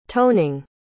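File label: "toning", English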